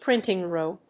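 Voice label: "printing room", English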